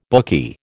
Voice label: "bookie", American